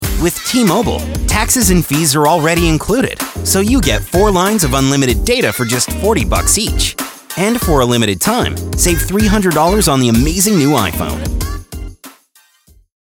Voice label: VO Spot; TMobile